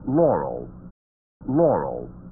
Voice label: Laurel vs. Yanny Low Frequencies